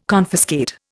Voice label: "confiscate", Indian

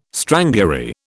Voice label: "strangury", British

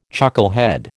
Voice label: "chucklehead", American

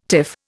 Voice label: "tiff", Indian

